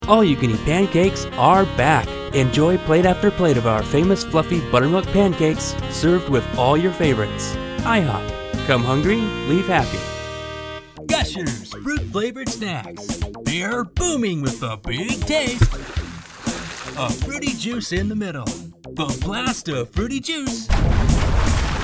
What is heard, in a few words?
commercial